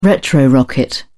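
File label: "retrorocket", British English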